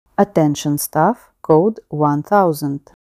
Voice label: emergency announcement coded prepare; emergency voice announcements

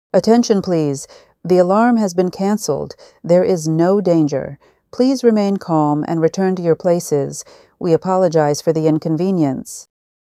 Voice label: emergency announcement all clear return; emergency voice announcements

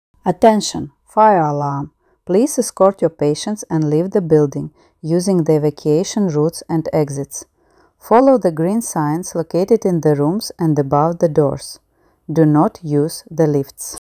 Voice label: emergency announcement staff escort patients; emergency voice announcements

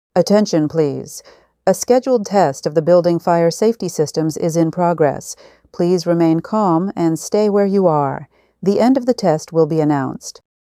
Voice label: emergency announcement system test in progress; emergency voice announcements

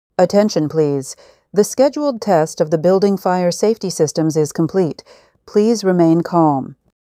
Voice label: emergency announcement system test complete; emergency voice announcements

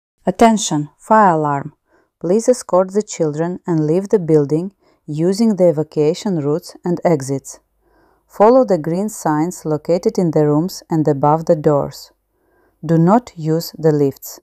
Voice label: emergency announcement staff escort children; emergency voice announcements

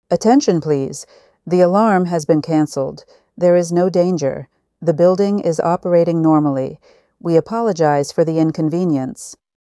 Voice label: emergency announcement all clear normal operation; emergency voice announcements

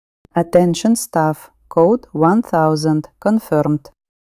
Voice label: emergency announcement coded confirmed; emergency voice announcements

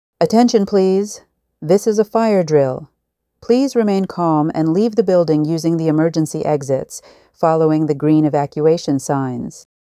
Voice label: emergency announcement fire drill start; emergency voice announcements

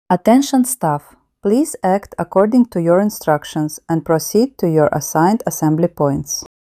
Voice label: emergency announcement staff assembly; emergency voice announcements

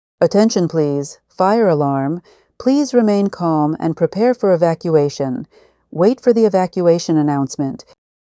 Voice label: emergency announcement prepare for evacuation; emergency voice announcements

